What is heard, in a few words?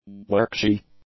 "workshy", english; adjectives